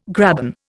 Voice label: "graben", Indian